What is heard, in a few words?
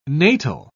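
"natal", British English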